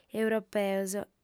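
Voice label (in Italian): "europeos", lingua sarda, female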